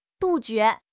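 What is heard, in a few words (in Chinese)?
"杜绝", Mandarin Chinese; HSK6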